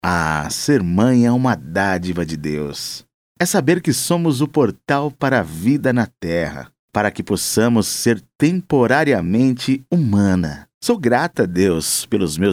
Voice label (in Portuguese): Institucional